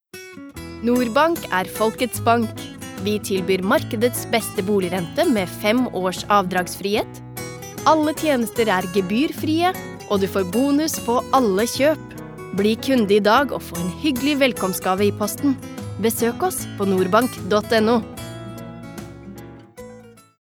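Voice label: Norwegian commercial, female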